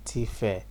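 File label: "tfare", Nigerian Pidgin, male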